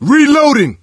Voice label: reload intense; voice; source engine; left 4 dead 2

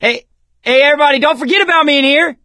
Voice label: call for rescue, survivor voice; source engine; left 4 dead 2

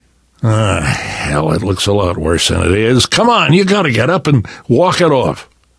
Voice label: revive friend, left4dead2 survivor voice namvet

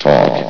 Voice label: "talk", vox; Source Engine; bshift

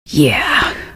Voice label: kill, female; episodic; episode 1; Source engine

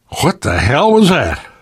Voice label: uncertain, survivor; voice

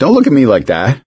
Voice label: sorry, survivor voice; source engine; left 4 dead 2; gambler

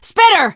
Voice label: spitter, teengirl; video game; source engine; left4dead2; survivor

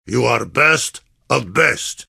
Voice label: heavy summary callout; compmode

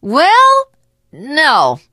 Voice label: initial meeting, teen girl

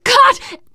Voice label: horde attack, teen girl, survivor voice

